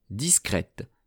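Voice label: "discrete", French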